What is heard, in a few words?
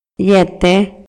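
Maya, male; PALABRAS CON DISTINTAS FUNCIONES; INALI; MayaYuc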